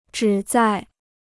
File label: "旨在", Chinese